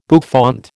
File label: "bouffant", American